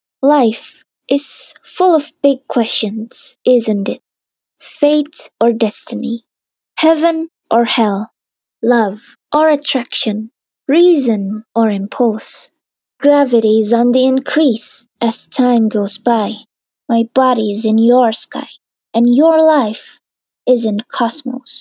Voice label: NARRATION ENGLISH

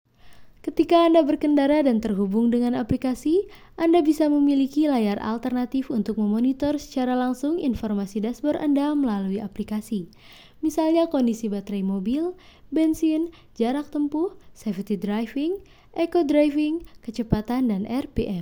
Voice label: INDONESIA CASUAL

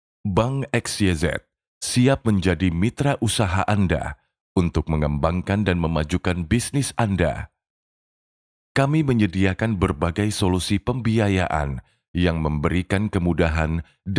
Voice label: FORMAL INDONESIA

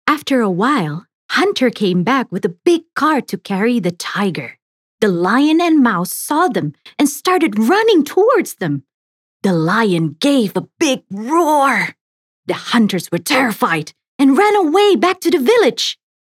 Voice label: Character ENGLISH

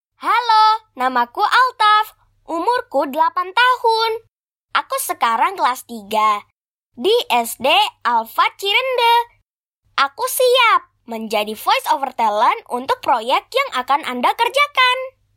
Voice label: CASUAL INTRODUCTION, voiceover

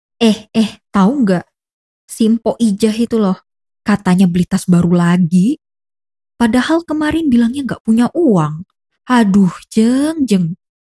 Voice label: character, Indonesia

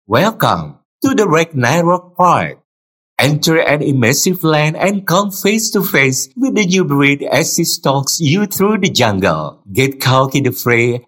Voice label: casual English

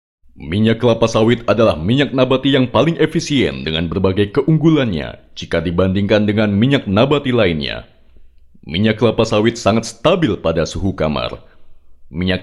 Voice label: Formal Indonesia

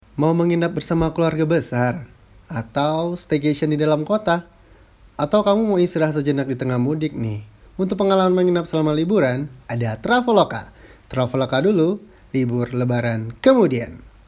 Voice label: casual

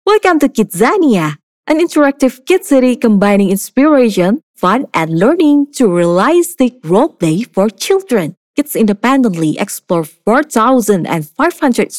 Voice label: ENGLISH CASUAL

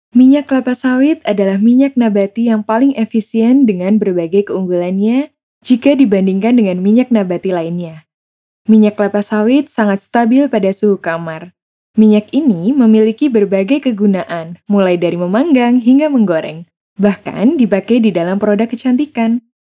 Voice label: FORMAL INDO MINYAK SAWIT

